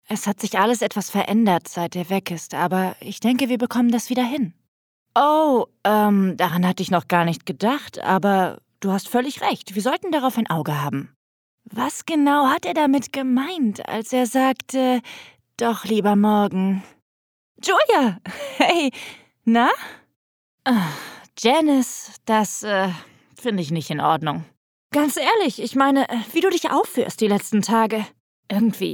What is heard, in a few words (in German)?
Synchron